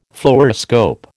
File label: "Fluoroscope", American